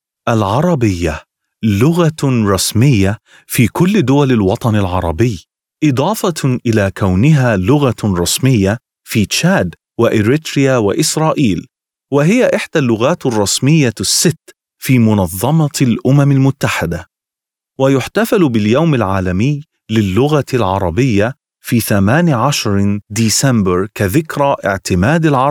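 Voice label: Arabic male corporate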